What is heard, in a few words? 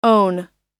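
"own", American English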